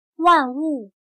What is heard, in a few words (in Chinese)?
"万物", chino